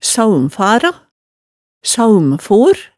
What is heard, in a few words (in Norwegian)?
"saaumfara", hemsedalsmaalet